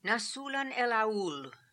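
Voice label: "Nasoolan el Aool", pronunciations; Fourth Way; Beelzebub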